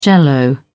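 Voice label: "jell-o", British English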